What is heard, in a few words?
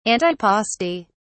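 "antipasti", British English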